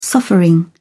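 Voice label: "suffering", British English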